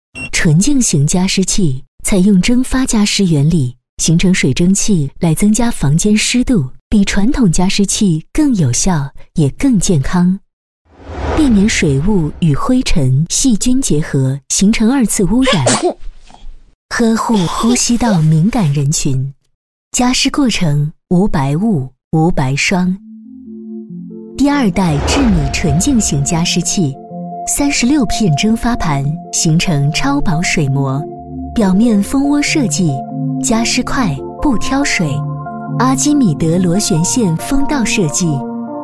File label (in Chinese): 女 广告 加湿器; 智米纯净型加湿器